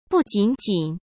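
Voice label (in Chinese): "不仅仅", Mandarin Chinese; hsk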